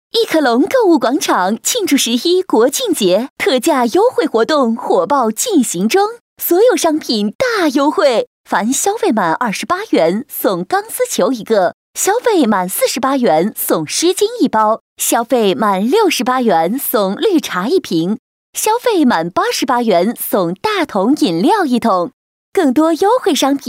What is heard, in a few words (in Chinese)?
女 促销 干音, 国语女声配音; 亿客隆